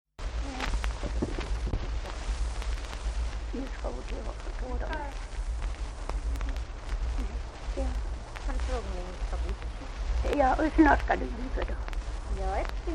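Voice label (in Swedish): Venjan Dalarna, svenska sagor dialekter; Folkminnen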